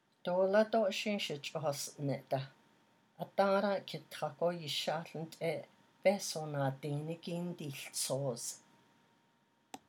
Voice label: Boy oh boy I was in luck yesterday I was walkin, navajo